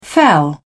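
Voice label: "fell", British English, dictionary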